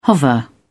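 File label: "hover", British English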